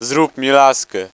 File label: nervous; cstrike; hosty